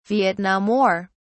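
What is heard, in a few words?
"Vietnam War", British English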